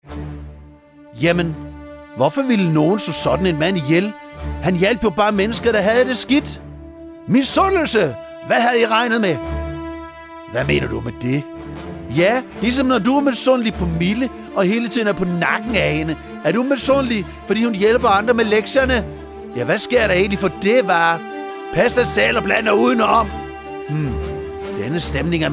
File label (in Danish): Ypperstepraesterne drama med speak